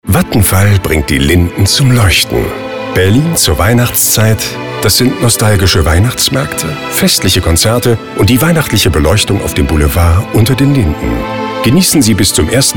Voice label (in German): de werbung, deutsch maenner; sprecher